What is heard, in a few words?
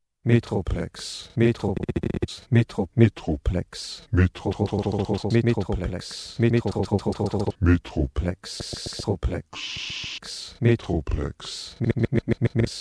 Trailer; Metroplex